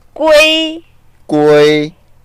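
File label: "gui1", Mandarin Chinese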